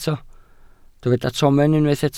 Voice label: "tomen", Welsh